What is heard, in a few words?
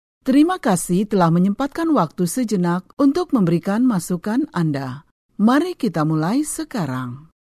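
Indonesian Bahasa Female Tutorial; voice over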